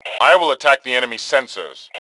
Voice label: Self Attack Sensors, Male; T2 Male 02